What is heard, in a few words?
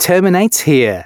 announcements male